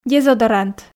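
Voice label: "дезодорант", Komi, female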